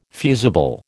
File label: "fusible", American